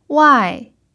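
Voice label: "wai4", Mandarin pinyin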